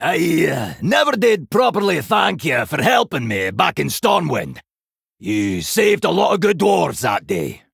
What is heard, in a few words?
Male Dwarf; Tavish